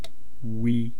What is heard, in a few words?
"wii", East Cree syllabics; language